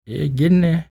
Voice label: SPOKEN, Blues Soul, TALK